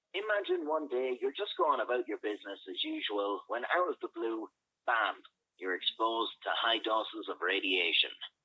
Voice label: Nokia 8.3 5G Voice Recognition, android call recordings